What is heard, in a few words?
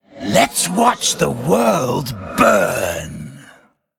Undead Emote